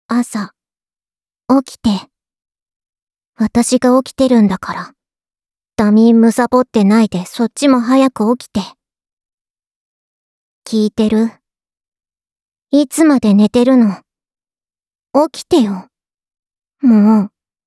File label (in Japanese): 目覚まし ボイス; 春日野穹; 春日野穹のいる生活; KU100バイノーラル